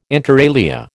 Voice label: "inter alia", American

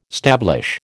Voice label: "stablish", American

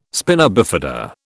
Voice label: "spina bifida", British

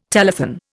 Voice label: "telethon", Indian

